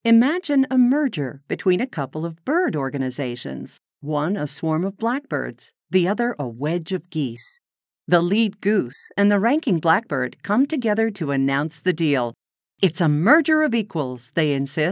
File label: english canadian tv shows